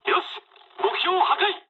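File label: bomb success mood low, speech japanese; War Thunder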